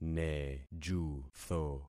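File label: "nejutho", concatenated syllables; Affixing experiment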